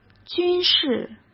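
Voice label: "军事" Mandarin Chinese; hsk; chinese-flashcards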